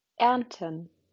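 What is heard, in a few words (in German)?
"ernten", German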